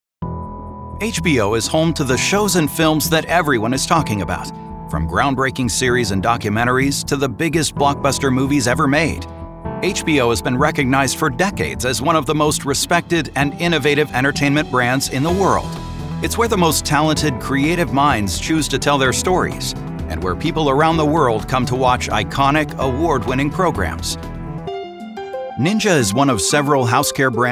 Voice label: Corporate